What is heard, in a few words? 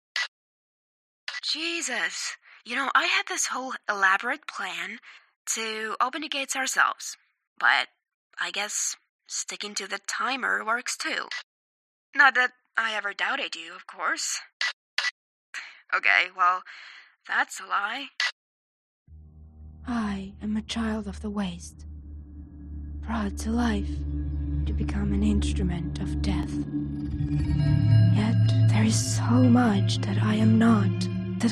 english video games